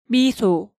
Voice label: "Miso", Hangeul sounds; DigitalSoju